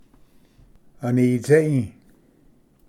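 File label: "anitsei", Cherokee, Pronunciation; ᎠᏂᏤᎢ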